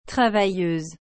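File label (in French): "travailleuse", French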